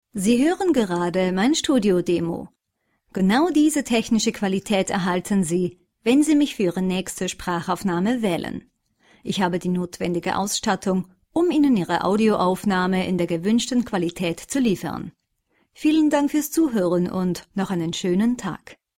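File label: german female narration; voice demos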